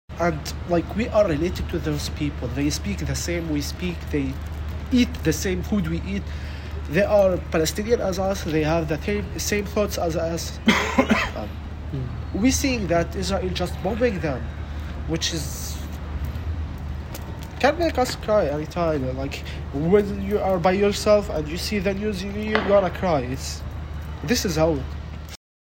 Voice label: becomes emotional as he reflects on his connection to Palestinians in Gaza